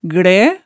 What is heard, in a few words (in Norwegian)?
"glæ", hemsedalsmaalet